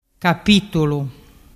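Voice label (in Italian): "capitulu", dialect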